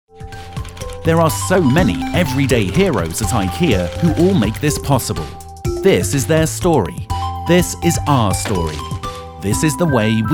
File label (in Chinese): 外籍英男,广告,激情活力